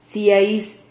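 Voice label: "ciais", Spanish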